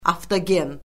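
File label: "avtogen", Azerbaijani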